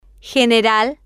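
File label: "general", Spanish, female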